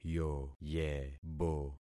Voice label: "yoyebo", concat syllables; Affixing experiment

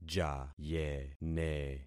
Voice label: "jayene", concat syllables; Affixing experiment

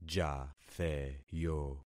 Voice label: "jatheyo", concat syllables; Affixing experiment

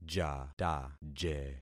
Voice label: "jataje", concat syllables; Affixing experiment

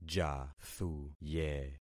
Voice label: "jathuye", concat syllables; Affixing experiment

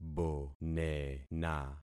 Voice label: "bonena", concat syllables; Affixing experiment

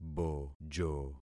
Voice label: "bojo", concat syllables; Affixing experiment

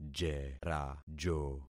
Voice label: "jerajo", concat syllables; Affixing experiment

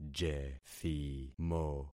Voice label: "jethimo", concat syllables; Affixing experiment

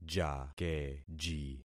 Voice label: "jakeji", concat syllables; Affixing experiment

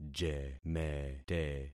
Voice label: "jemete", concat syllables; Affixing experiment

